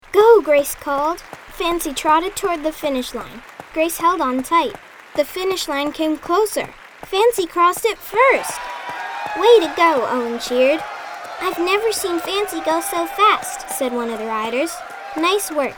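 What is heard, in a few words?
NARRATION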